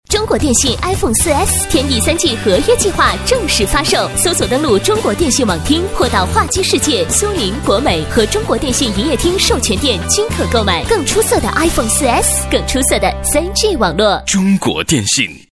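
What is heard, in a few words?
chinese mandarin female commercial; voice demos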